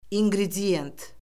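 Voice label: "inqrediyent", Azerbaijani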